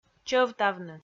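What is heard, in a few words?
"чӧвтавны", Komi, female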